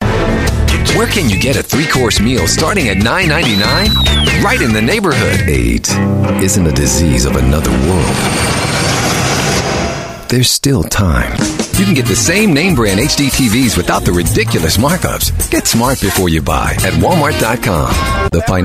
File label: US English Commercial